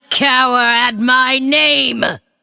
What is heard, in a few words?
Female Undead In Game Boss Uses Hero Power